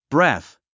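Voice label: "breath", English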